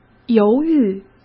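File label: "犹豫", Mandarin Chinese; chinese flashcards; hsk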